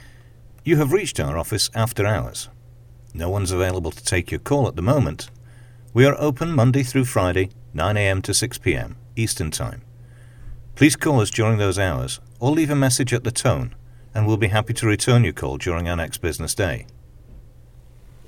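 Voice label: British IVR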